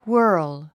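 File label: "whirl", English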